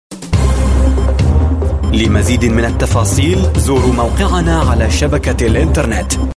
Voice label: Arabic Male